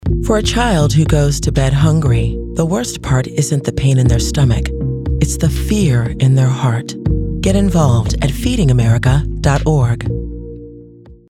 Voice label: Internet Ad

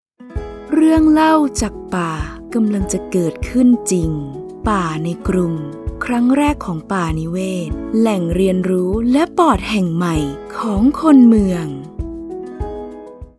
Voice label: feel good w music; akg; German (Germany)

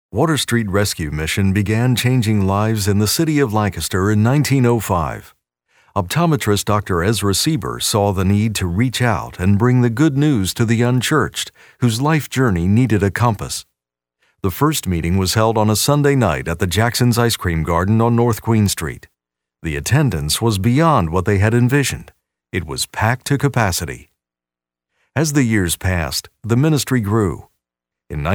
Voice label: Documentary

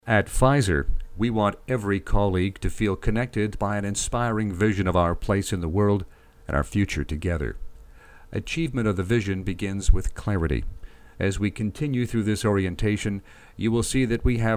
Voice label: Corporate Narration

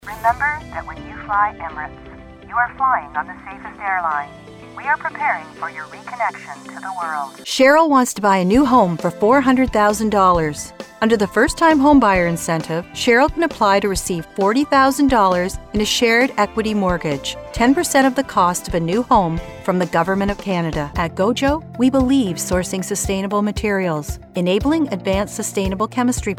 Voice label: Corporate Narration

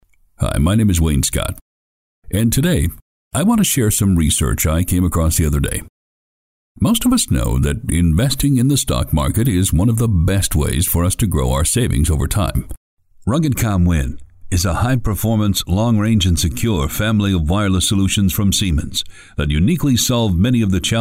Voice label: Authoritative

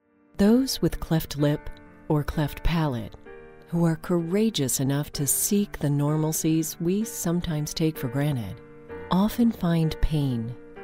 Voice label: Documentary

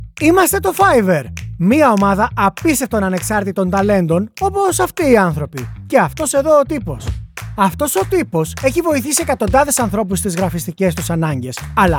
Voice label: Greek Commercial Energetic

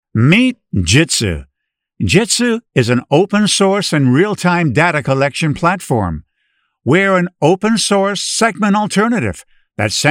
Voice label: Video Promo; Jitsu

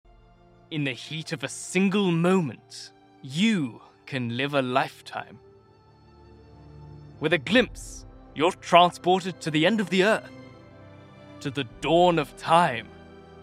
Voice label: Commercial UK

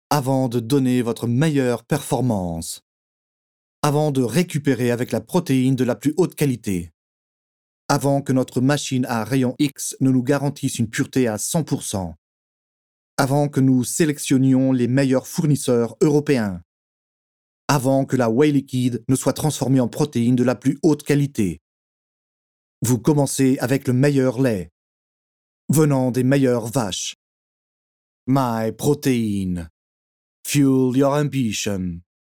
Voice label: French Advertising VO

